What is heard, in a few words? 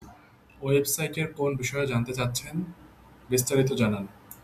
voice message